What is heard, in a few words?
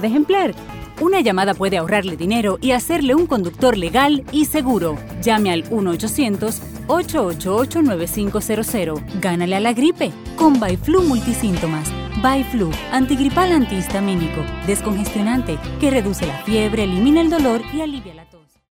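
Spanish Latin America female sales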